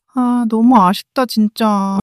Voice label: sadness, monologue

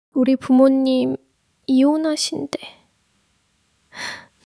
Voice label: crying, monologue

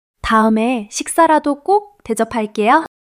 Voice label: joy

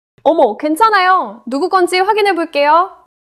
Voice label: neutral, monologue

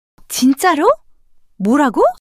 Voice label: surprise, monologue

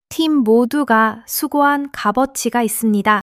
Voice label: joy; monologue

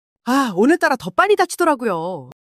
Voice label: anger; monologue

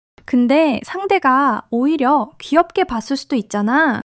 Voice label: excitement; monologue

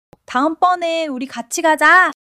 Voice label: joy

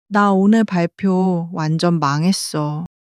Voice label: sadness, monologue

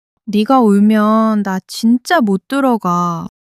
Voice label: sadness; monologue

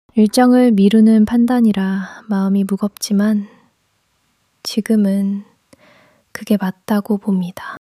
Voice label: sadness

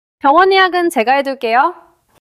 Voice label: neutral, monologue

